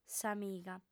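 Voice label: "s'amiga", female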